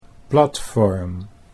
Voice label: "platform", Dutch

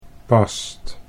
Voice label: "past", Dutch

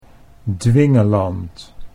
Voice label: "dwingeland", Dutch

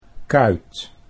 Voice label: "kuit", Dutch

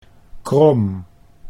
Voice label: "krom", Dutch

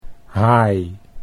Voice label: "haai", Dutch

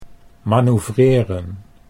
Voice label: "manoeuvreren", Dutch

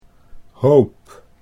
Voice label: "hoop", Dutch

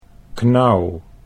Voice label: "knauw", Dutch

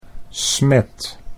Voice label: "smet", Dutch

